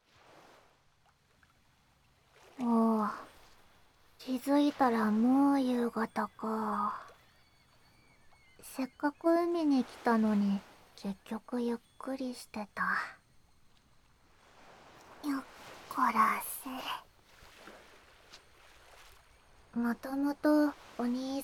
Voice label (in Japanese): 海の家でまったり炭酸耳かき, ASMR; JKの太ももを気持ちいやろ